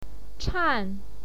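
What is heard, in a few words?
"chan", Mandarin Chinese, fourth tone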